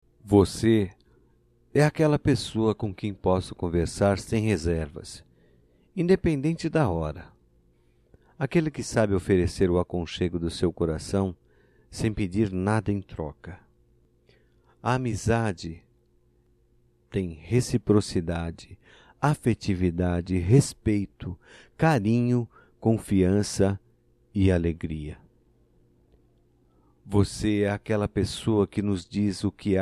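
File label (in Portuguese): DIA DO AMIGO, masculino; Telemensagens; Só Voz; DATAS COMEMORATIVAS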